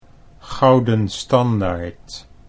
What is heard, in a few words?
"Gouden Standaard", Dutch